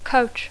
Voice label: "coach", English